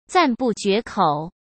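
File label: "赞不绝口", Mandarin Chinese; hsk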